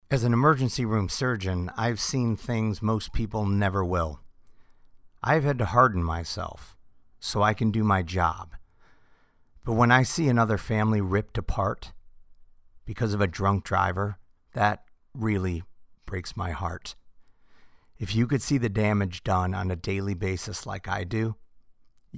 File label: psa drinking driving surgeon